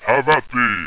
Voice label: have at thee, rumbly, attack